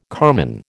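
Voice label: "carman", American English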